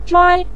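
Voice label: "zhuai5", Chinese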